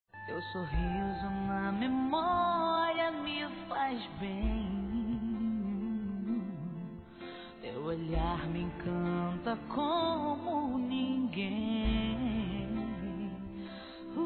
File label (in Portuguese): NATAL ROMANTICO, masc; Telemensagens; Natal e Ano novo